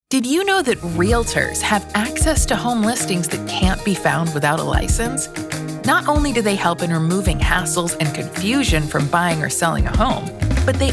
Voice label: Radio Com, 90.5; KJIC; Victory Properties